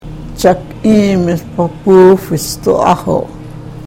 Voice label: karuk